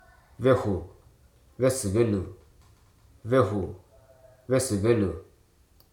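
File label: "keepsake", English, Hruso dictionary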